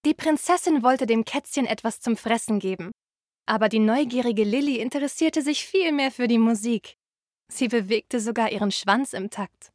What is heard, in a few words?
Off Cute